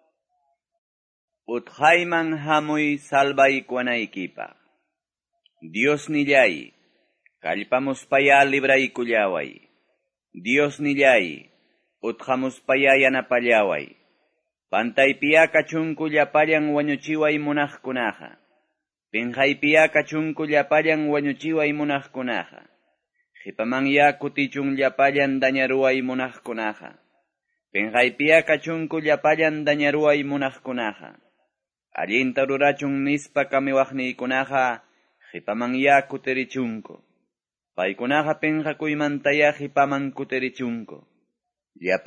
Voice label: salmos, quechua ayacucho